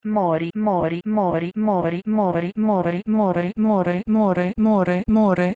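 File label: Proto-Indo-European mori to Bosnian Croatian Serbian more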